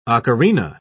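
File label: "ocarina", British English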